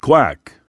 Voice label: "quack", voice

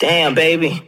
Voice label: damn baby male, expletives; Voice; damn god damn

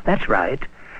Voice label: "that's right", male

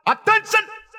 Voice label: attention german guy; Voice; introductions

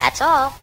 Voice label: "that's all", cartoon

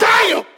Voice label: "damn", expletives

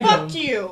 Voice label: "fuck you" female; Voice; expletives

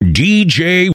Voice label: dee jay announcer; Voice; introductions

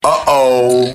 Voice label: "uh oh", Voice; ooh ahh

